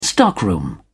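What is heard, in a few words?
"stockroom", English, British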